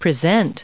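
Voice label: "presented", us English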